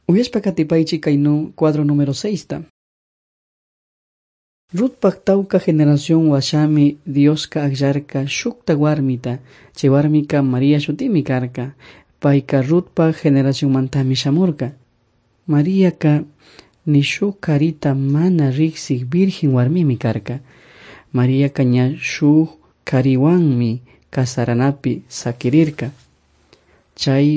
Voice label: servants of god quichua chimborazo